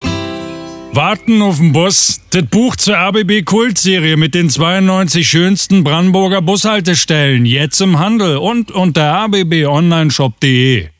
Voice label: Hoerprobe berlinerisch, Sprecher

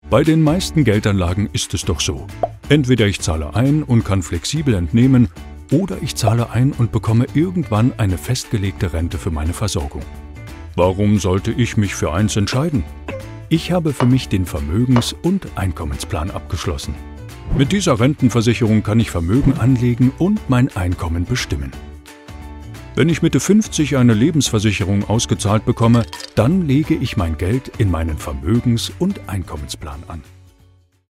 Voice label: Hoerprobe Erklarvideo; GENERALI

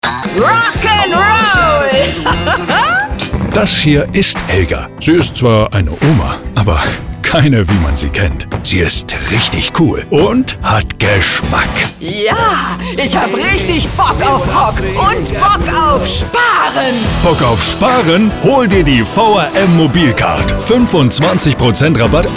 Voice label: Hoerprobe Funkspot, Sprecher; VRM Mobilcard

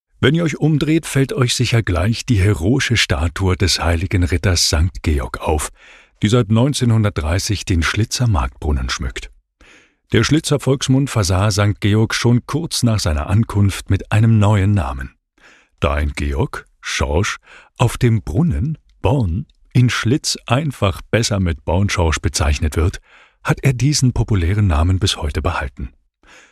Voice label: Hoerprobe Audioguide; Stadt Schlitz

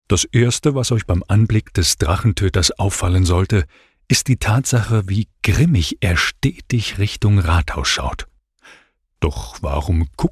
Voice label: Hoerprobe Audioguide; Burgenstadt Schlitz

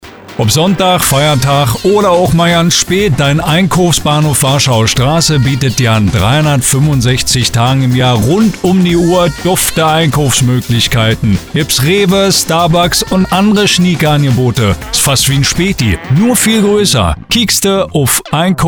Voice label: Hoerprobe Werbung, Sprecher; einkaufsbahnhof de

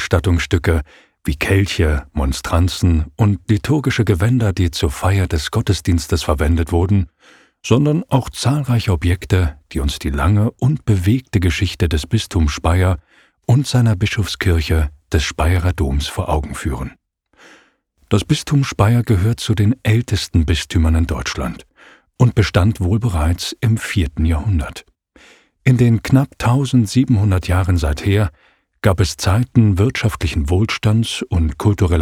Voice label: Hoerprobe Audioguide Museum; Speyer

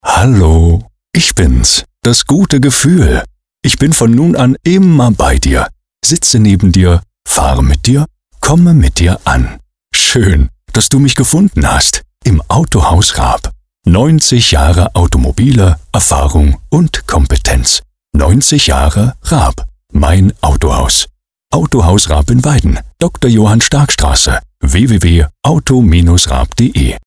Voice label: Hoerprobe Werbung Autohaus; Raab

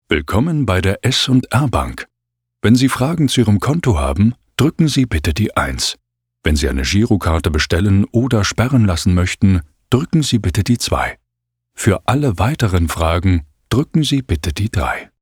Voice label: Hoerprobe Telefonansage; SR Bank

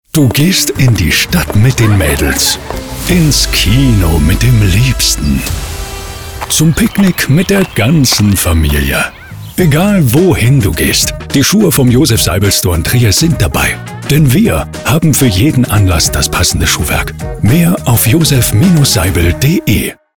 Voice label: Hoerprobe Werbung, Sprecher; Josef Seibel Store Trier

